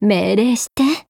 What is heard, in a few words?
Voice JP; Loreley